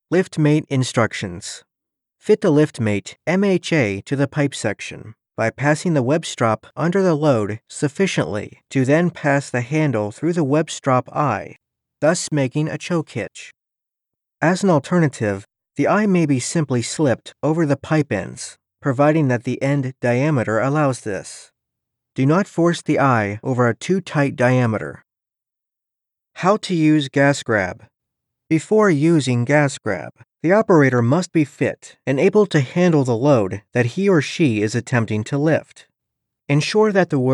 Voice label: Instructional Training Elearning